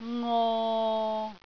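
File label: "ngo6", Cantonese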